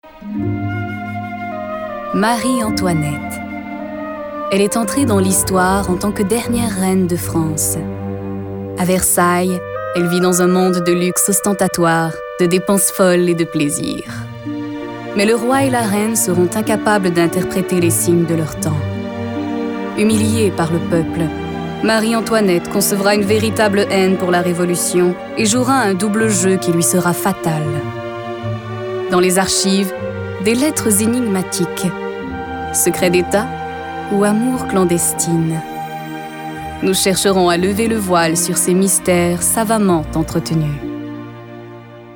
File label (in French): narration sensuelle charmante huppee snob sobre jeune; marie antoinette; adulte